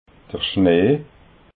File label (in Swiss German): "schnee", alsace